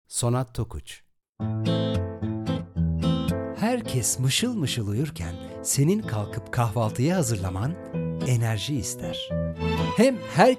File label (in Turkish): turkce erkek